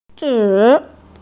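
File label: "zhi3", Mandarin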